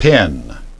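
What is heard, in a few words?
"pen", English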